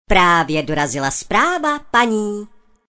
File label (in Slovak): sms hlásky cz